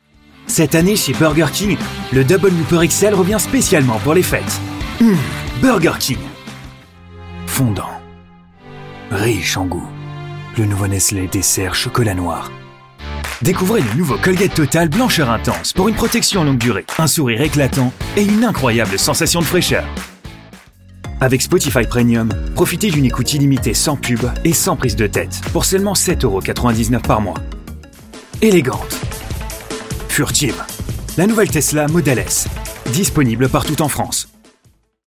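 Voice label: French, Commercial; voice demos; GoLocalise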